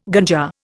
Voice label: "ganja", Indian

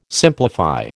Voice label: "simplify", American

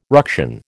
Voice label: "ruction", American

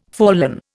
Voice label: "fallen", Indian

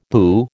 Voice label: "boo", American

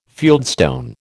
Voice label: "fieldstone", American

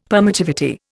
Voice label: "permittivity", Indian; Dictionary

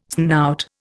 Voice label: "snout", Indian

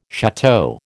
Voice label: "château", American

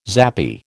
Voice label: "zappy", American

